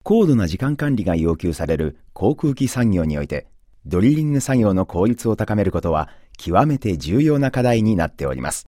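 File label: Japanese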